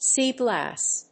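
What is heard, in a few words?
"sea glass", English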